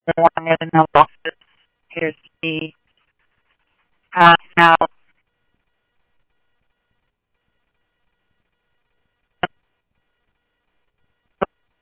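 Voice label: Simulcast Chandler Patrol A, scanner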